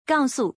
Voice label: "gaosu", Chinese